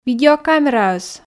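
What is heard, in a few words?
"видеокамераӧс", Komi, female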